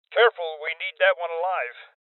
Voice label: Voices Boss Spotted Male Old